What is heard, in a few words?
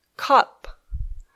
"cup", English